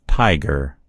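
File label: "tiger", American English; gcompris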